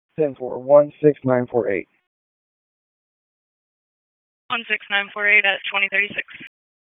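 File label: Police Southwest District Dispatch, p25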